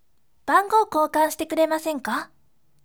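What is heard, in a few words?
voice, bright; bangou koukan site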